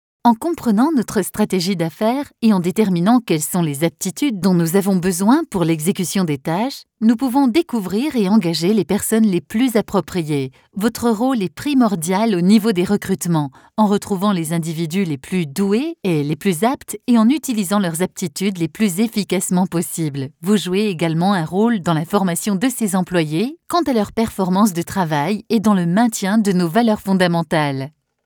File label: European French; foreign languages